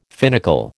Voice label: "finical", American

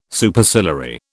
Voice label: "Superciliary", British

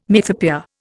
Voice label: "mythopoeia", Indian

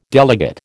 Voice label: "delegate", American

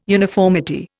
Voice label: "uniformity", Indian

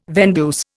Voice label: "vendeuse", Indian

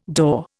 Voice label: "daw", Indian

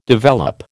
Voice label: "develop", American

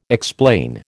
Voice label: "explain", American

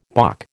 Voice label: "bock", American

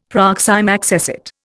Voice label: "proxime accessit", Indian; Dictionary

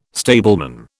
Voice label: "stableman", British

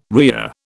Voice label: "rhea", British

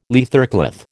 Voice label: "leathercloth", American

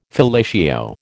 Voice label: "fellatio", American

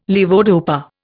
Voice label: "levodopa", Indian

